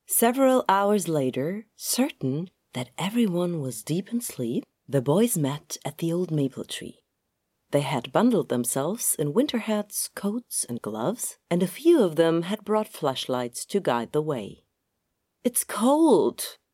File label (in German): Hoerbuch Englisch